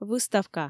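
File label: "выставка", Komi, female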